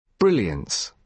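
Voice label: "brilliance", British English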